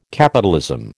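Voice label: "capitalism", American English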